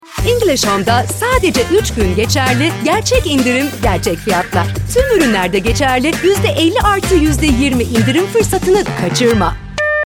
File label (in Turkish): reklam enerjik dinamik neseli; englishhome